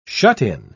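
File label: "shut-in", British English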